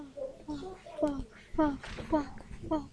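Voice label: "bog", spoken word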